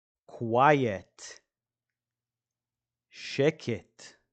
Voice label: "quiet" – "שקט"